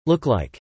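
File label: "look like" spoken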